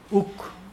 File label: blow, Mokilese